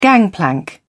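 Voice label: "gangplank", English, British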